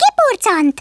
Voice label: FATALITY, Speech Hungarian; Worms Armageddon